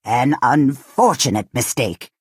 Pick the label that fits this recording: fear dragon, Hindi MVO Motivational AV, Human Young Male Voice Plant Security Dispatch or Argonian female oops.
Argonian female oops